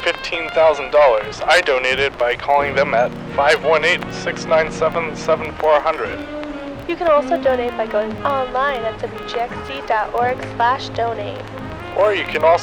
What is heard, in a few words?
Pledge Drive Promo; Vintage; WGXC Winter 2015 Pledge Drive